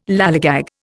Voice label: "lallygag", Indian

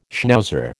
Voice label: "schnauzer", American

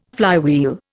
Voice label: "flywheel", Indian

